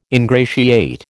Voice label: "ingratiate", American

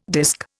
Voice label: "disk", Indian

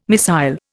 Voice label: "missile", Indian

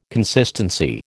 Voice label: "consistency", American

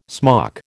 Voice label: "smock", American

